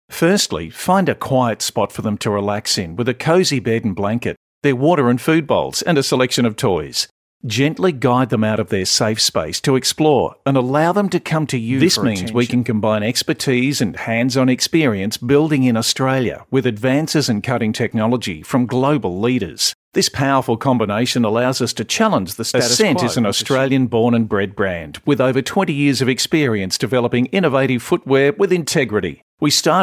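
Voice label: Natural, voice overs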